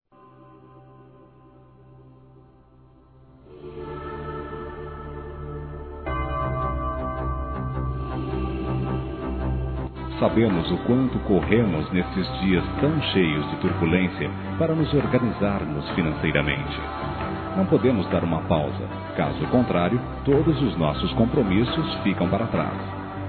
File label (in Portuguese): COBRANÇA COMERCIAL M, Telemensagens; Empresarial; masc; Telemensagens 2017